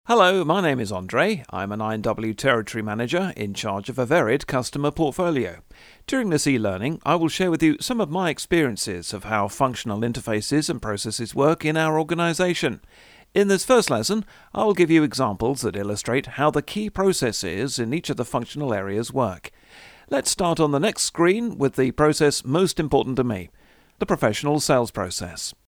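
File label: British, voice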